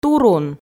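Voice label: "турун", Komi, female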